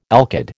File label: "alkyd", American